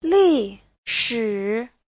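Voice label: "lìshǐ", Mandarin Chinese; 历史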